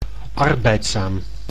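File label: "arbeidzaam", Dutch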